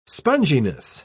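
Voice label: "sponginess", British English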